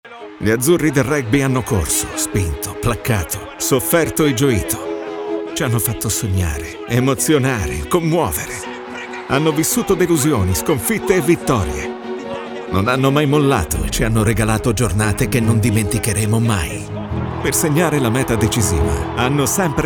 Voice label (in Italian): radiofonico; dentro c'e l'italia nazionale